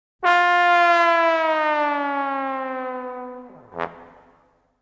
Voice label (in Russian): Sozhalenie i nasmeshka